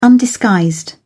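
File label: "undisguised", British English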